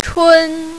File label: "chun1", mandarin, female